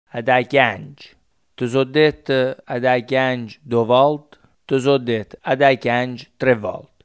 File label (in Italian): piangere, coratino